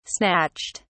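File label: "snatched", British English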